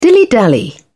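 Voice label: "dilly dally", British English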